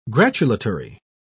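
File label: "gratulatory", British English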